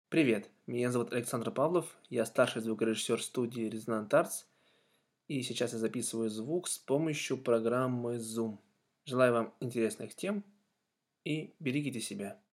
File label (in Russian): запись Zoom